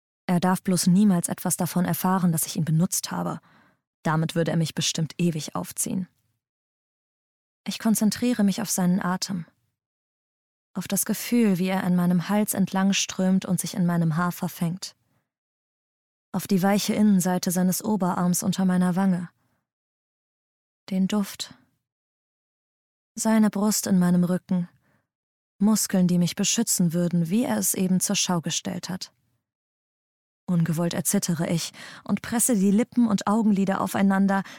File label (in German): Hoerbuch; Die Stille der Zeit